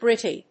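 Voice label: "gritty", English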